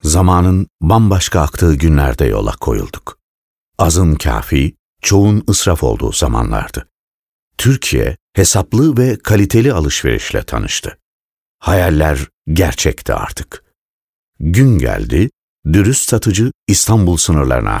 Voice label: turkish m narration, Turkish voice